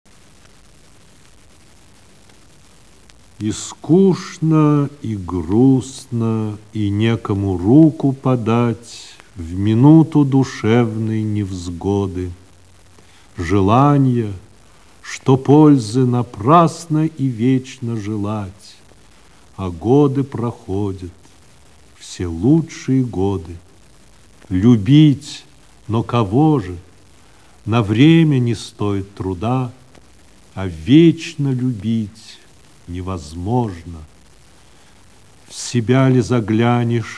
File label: bored and sad